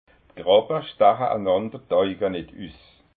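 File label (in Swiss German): "krappa", Alsatian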